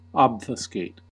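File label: "obfuscate", US English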